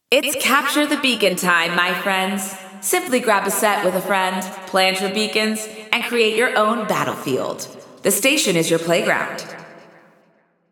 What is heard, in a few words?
Announcements; CTB Available; GAIA